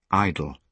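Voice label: "idle", British English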